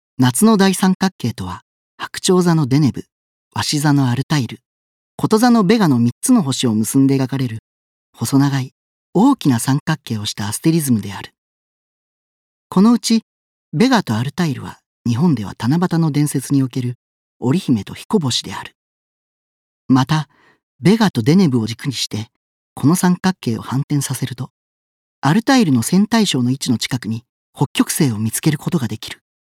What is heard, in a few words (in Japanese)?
星座解説ナレ